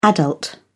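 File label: "adult", British English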